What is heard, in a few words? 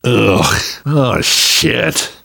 reaction boomer vomit, namvet, survivor voice; Left 4 Dead 2; left4dead2 dlc3